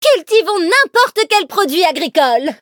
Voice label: levelup, fr; Cookie Run

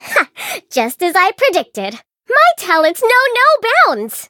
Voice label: eng level up; blue slushy; cookie run

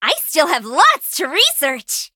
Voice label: levelup en; cookie run

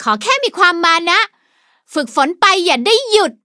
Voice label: level up, th; cookie run

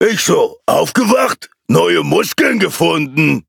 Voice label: level up de; Cookie Run

